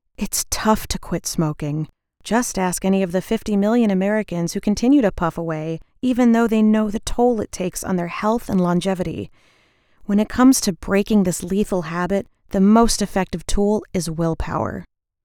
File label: Commercial PSA; American Cancer Society